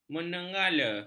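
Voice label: "menenggala", Malay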